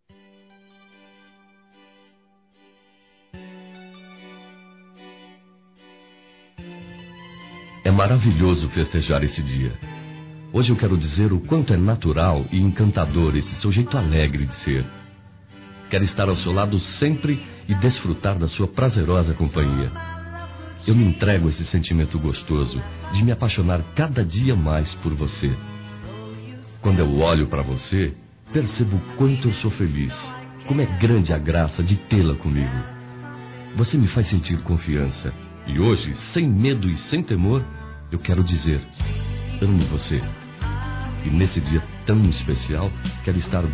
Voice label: Romântico Masculino

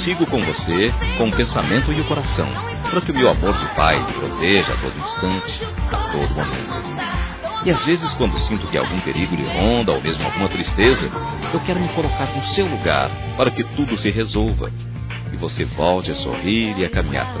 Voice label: FILHA, masc; Aniversários; Aniversários diversos

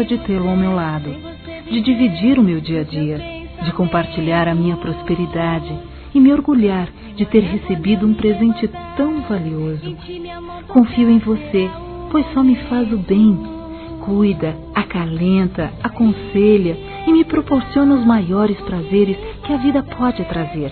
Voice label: HOMENAGEM AO ESPOSO (F), Telemensagens, Gospel; fem; Telemensagens 2017

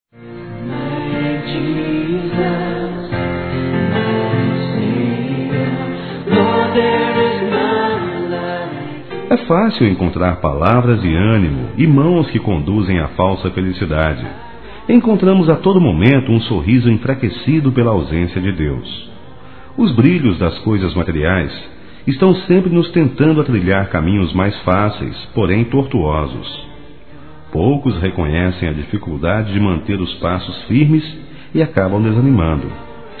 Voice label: Evangélico Amizade MASCULINO, Telemensagens; ANIV. Evangelico

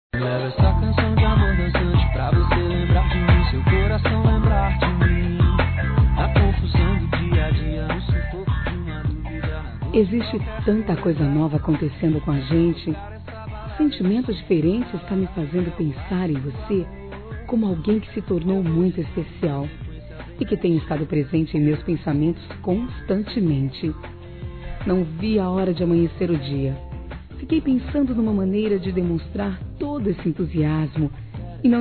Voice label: BOM DIA ROMANTICO-F

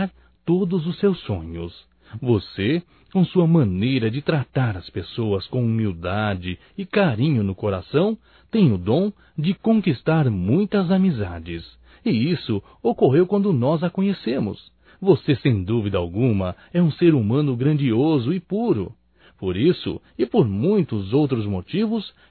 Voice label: Aniversário Amiga Nós Desejamos Masculino; Aniversarios; Telemensagens 2017

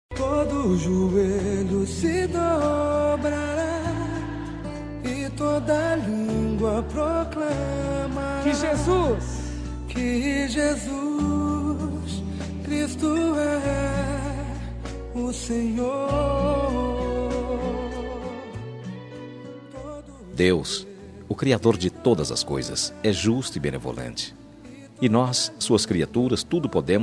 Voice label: MENSAGEM DE OTIMISMO MASC; FELICITAÇOES

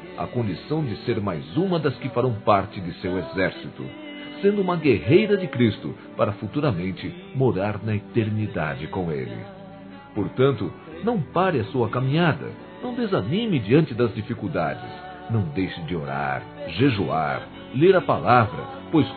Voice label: INCENT. SERVIÇO DEUS, Telemensagens Gospel masc

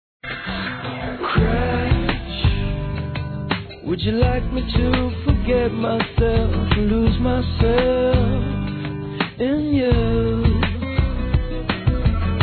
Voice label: IRMÃO, Telemensagens, Dia dos Pais, fem; Telemensagens 2017

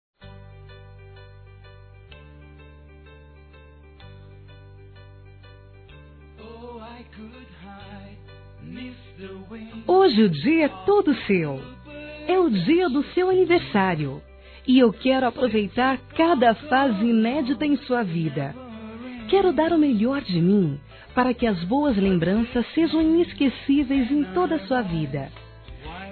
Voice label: FILHO (F)

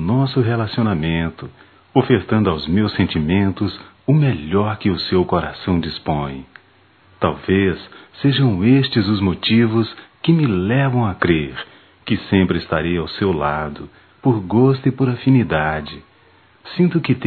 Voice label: Dia da Mulher, Só Voz, masc; Telemensagens; Telemensagens 2017

